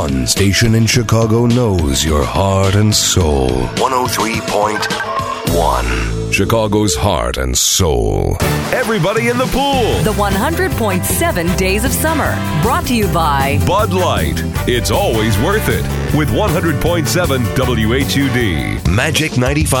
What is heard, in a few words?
Radio Imaging AC